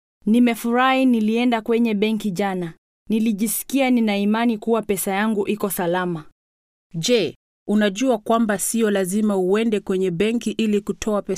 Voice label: banking swahili